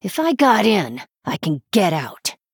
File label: Female Human